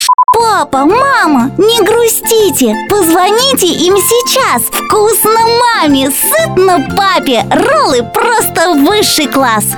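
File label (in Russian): Detskiy golos, child voice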